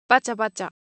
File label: Japanese, Ideo Phones